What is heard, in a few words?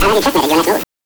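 "Checkmate", voice; NES DPCM; MIDINES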